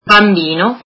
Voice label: italian child

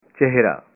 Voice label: "face", punjabi

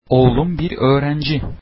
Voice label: my son is a student, turkish

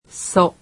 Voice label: japanese alphabet "so"

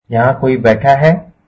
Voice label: Is this seat taken, hindi

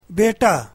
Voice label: urdu son

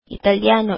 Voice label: "Italian", filipino

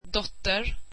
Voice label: swedish daughter

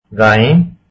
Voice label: hindi cows

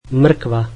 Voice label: slovak carrots

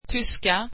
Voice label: "German", swedish

